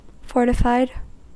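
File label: "fortified" US English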